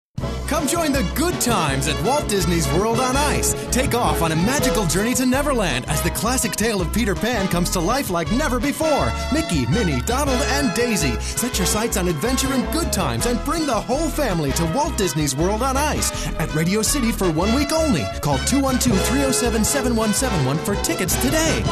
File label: male commercial